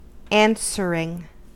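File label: "answering", American English; pronunciations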